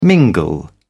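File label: "mingle", British English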